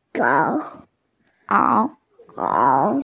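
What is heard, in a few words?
"pi2ao3", Mandarin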